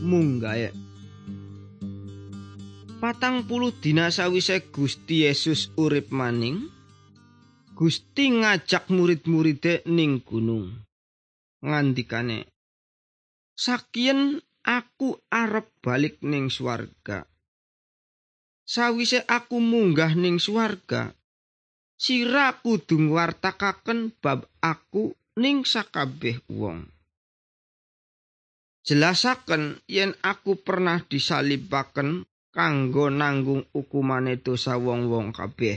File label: good news jawa indramayu, javanese bible